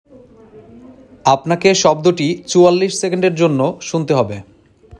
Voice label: male sound given